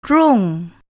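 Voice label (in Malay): "rung", Malay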